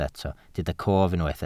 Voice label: "cof", Welsh